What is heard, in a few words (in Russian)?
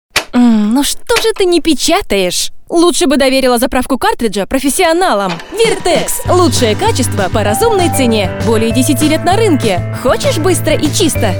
ИГРОВОЙ ОДНОГОЛОСЫЙ РОЛИК; ВЕРТЕКС